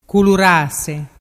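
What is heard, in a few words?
"culurase", Vernazzese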